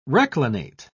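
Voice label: "reclinate", British English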